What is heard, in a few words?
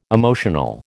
"emotional", American